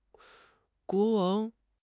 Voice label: "guo2 wang2", 汉语; 汉语口语新时代-初级下